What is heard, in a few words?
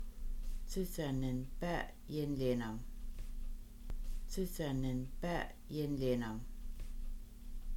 Do you like picking berries, Kaska; People Camping